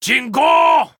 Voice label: Male Dwarf Attack, zh